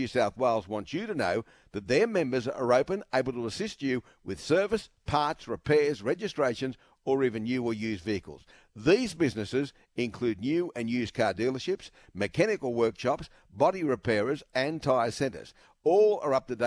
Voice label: radio commercial Live Read; 2GB; Ray Hadley